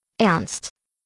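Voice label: "Ernst", German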